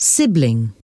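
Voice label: "sibling", English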